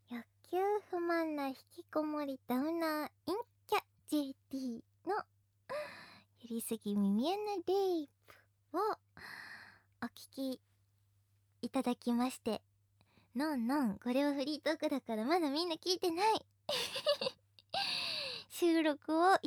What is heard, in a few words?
free talk; 【KU100】欲求不満な引きこもりダウナー陰キャJDのヤリすぎ耳穴レイプ!